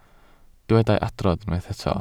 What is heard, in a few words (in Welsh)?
"adrodd", Welsh